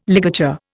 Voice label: "ligature", Indian